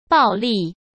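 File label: "暴利", Mandarin Chinese; hsk; chinese-flashcards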